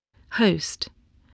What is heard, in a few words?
"host", voice spelling